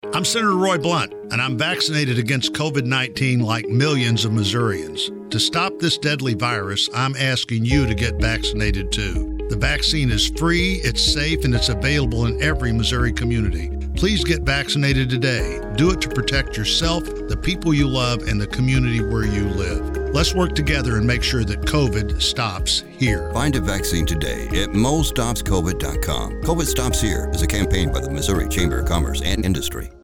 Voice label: PSA; Sen. Blunt